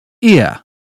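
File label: "ear", British English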